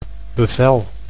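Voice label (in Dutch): "bevel", Dutch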